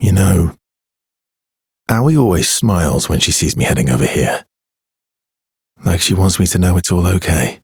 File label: Ambient Barks, en; Warframe; Mall Romance; Arthur